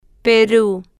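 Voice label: "peru", slow, spanish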